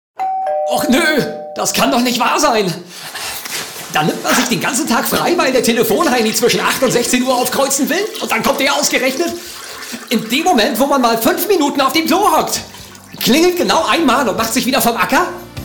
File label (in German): Funkspot